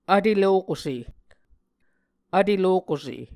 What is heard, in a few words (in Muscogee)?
"vtelokuse", Muscogee